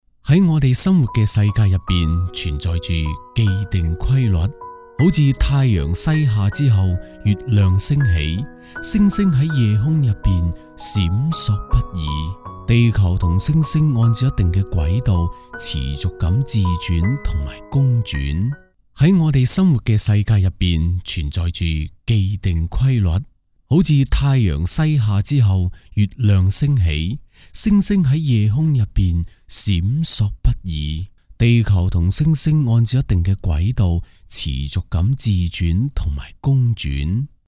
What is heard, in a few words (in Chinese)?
旁白, 粤男